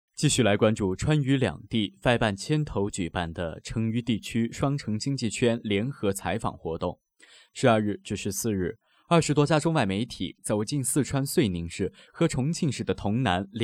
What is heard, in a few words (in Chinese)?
新闻